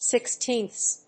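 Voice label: "sixteenths", English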